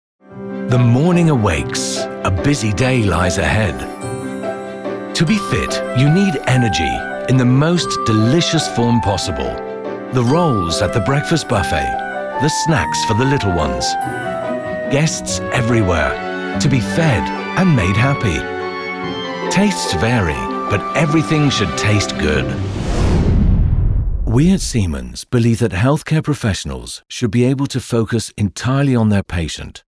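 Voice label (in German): UK English Werbung Variabel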